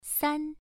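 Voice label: "san", Mandarin Chinese syllable, first tone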